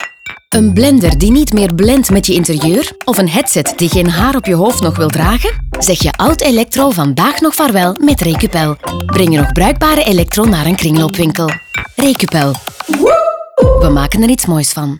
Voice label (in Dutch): kringloopwinkel radio; recupel